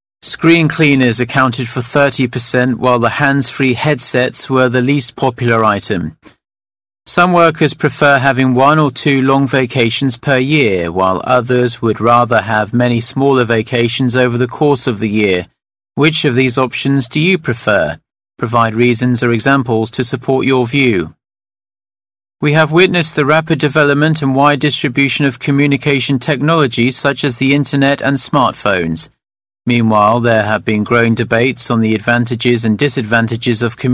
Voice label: British M, British English